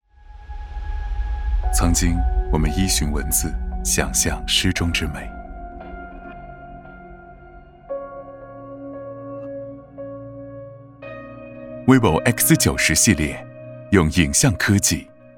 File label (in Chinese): 男 娓娓道来 诗歌之美; vivo x90